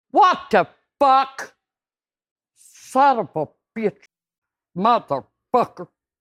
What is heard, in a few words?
angry grandma